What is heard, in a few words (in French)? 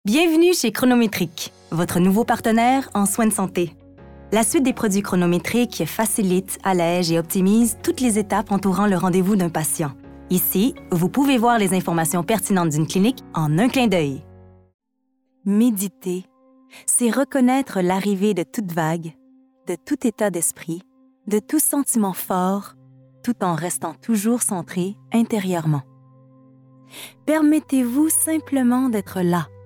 VOIX fr corpo